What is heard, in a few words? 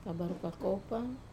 "kavaru kakopa", Wamesa; peanut